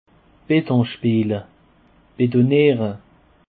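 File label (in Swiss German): "betonniere", Alsatian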